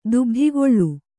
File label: "ದುಬ್ಧಿಗೊಳ್ಳು", Kannada; dubdhigoḷḷu; (Verb); to be disappointed; to feel jealousy; to envy